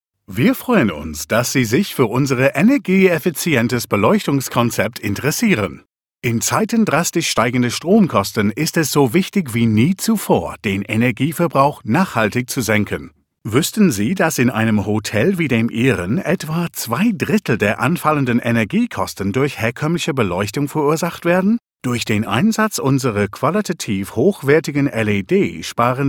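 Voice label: Akzent English UK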